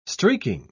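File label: "streaking", English, British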